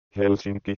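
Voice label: "Helsinki", Finnish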